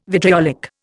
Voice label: "vitriolic", Indian